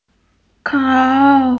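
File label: "kou3" Chinese, female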